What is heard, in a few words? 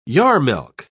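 "yarmelke", British English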